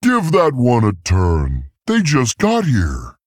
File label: Male Tauren error summon sickness